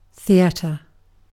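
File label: "theater", British English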